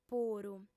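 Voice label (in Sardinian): "puru", female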